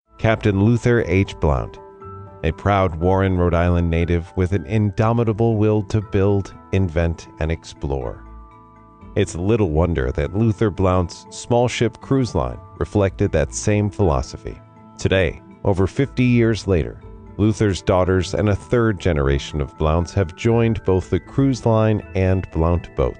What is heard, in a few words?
Narration